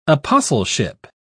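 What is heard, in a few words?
"apostleship", British English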